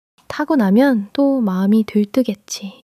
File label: excitement